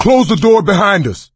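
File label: close the door, male; Left 4 Dead 2; coach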